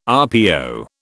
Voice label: "RPO", British